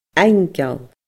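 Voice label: "aingeal", Scottish Gaelic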